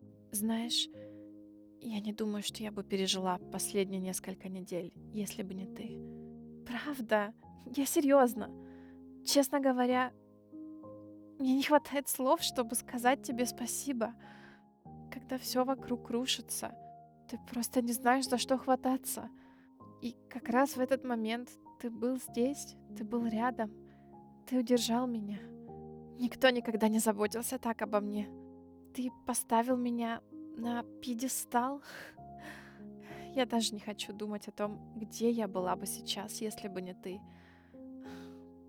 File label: monologue russian mature woman emotional